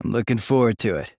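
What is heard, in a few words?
"Looking forward to it", male; rantaro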